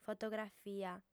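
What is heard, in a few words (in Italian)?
"fotografia", lingua sarda, female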